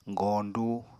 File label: "goñdu", Apatani